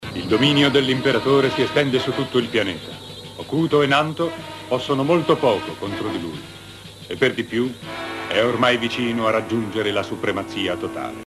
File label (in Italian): Narratore